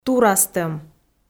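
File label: "турастӧм", Komi, female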